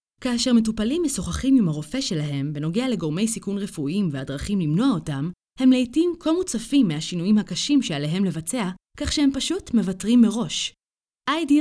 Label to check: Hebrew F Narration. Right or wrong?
Right